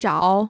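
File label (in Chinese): "找" Chinese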